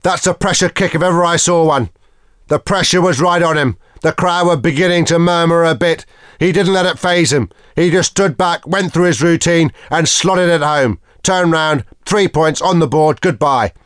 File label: C kick, commentary colour ENGLISH; ea rugby 2001